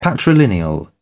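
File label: "patrilineal", British English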